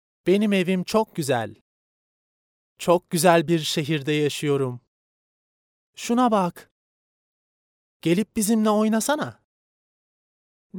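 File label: Turkish male children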